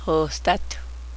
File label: belt, cheyenne